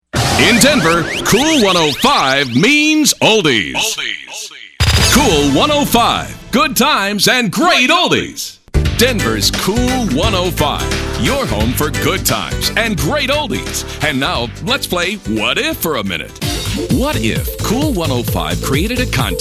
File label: Sweepers, Promos, ID's; KOOL105